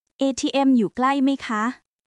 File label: Thai, basic thai phrases